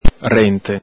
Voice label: "rente", Venetian

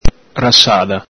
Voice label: "rasada", Venetian

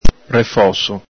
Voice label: "refoso", Venetian

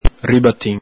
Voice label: "ribatin", Venetian

